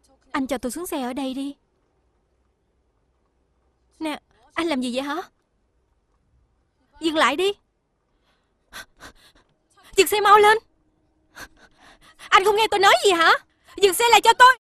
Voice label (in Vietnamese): longtieng cogai; Voice-off